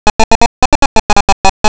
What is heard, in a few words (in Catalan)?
Català masculí sense estudis superiors; Novelda